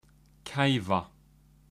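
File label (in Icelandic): "kæfa", Icelandic; matarhefti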